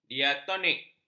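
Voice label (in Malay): "diatonik", Malay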